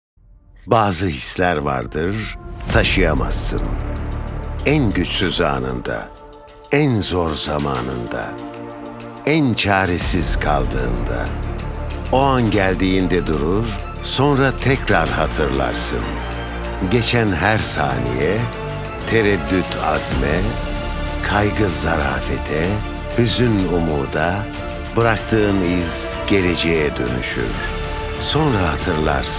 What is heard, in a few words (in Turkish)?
tarihi belgesel; seslendirme